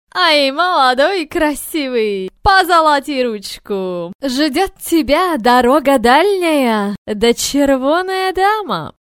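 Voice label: gypsy woman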